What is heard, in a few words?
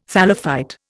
"thallophyte", Indian